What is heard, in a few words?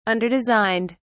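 "underdesigned", British English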